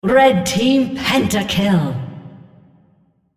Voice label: penta kill, female; red

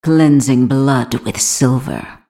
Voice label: attack, en US; Vayne

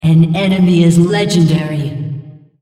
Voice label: female Killing Spree

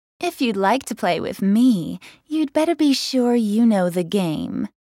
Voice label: joke, en US; Ahri

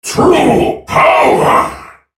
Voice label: ultimate effort, en US; Viktor; Character Voices - English

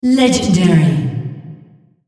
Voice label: female On Killing Spree

